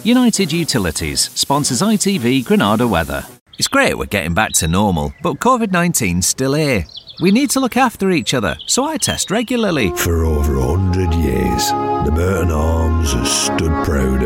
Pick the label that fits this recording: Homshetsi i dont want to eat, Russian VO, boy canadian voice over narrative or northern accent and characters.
northern accent and characters